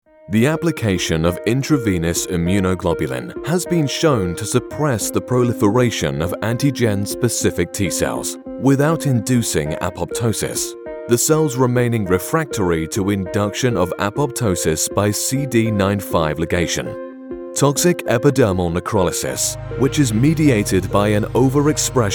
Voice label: english british medical narration

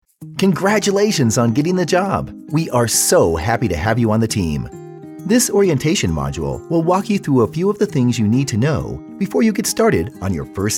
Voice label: English American e-learning

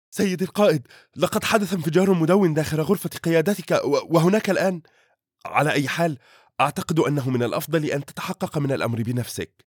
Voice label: arabic video games

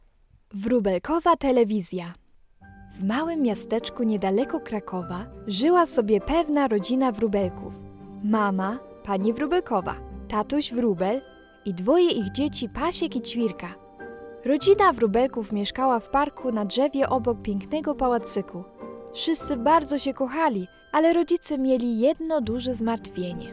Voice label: polish audiobooks

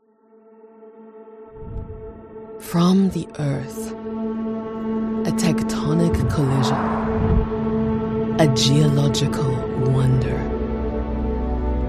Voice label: english international narration

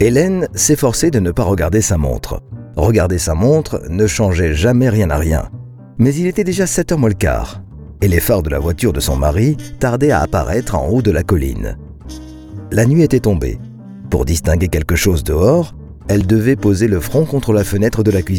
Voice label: french audiobooks

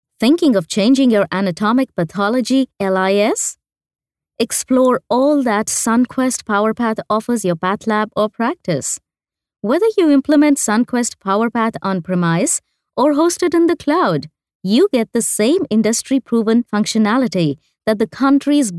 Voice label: english international commercial; English (International) Hindi English (Indian)

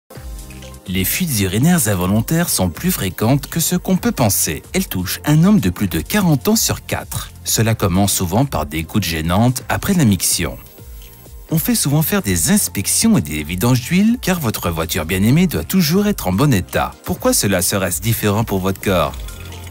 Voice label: french medical narration

